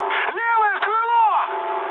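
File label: Russian left wing mood high, speech; War Thunder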